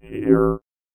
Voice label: VOICEFX ELCTR 140; Techno2